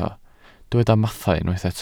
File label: "mathau", Welsh